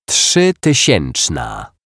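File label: "trzytysieczna", Polish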